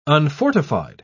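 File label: "unfortified", English, uk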